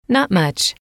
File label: "not much", English